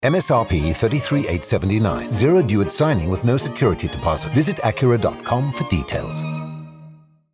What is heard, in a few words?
Lease Disclaimer; Acura